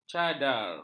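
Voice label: "cadar", Malay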